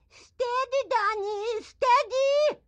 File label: grandma chopper annoyed; Blind Drive